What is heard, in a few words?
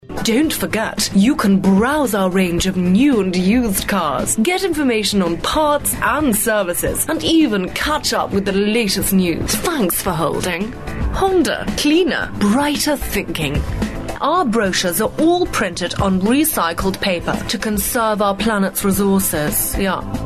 posh